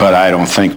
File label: LoFi Phrases, LoFi Vocals; Vengeance Samples